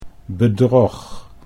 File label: "bedrog", Dutch; 'deceit, deception'